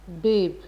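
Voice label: "babe", Nigerian Pidgin, female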